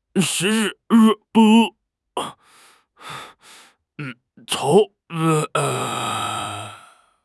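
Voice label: "时事", Mandarin Chinese; HSK6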